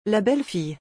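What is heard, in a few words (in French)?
"La belle fille", French; vocabulaire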